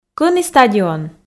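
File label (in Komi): кӧні стадион, Komi, female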